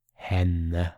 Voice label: "Henne", Norwegian